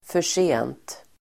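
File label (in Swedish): "förrent", Swedish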